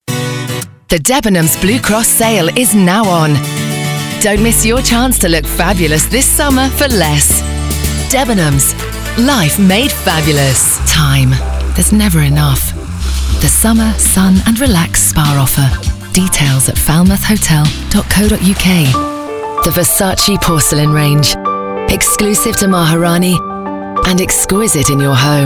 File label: Commercial showreel